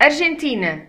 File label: "argentina", pt; gcompris; geography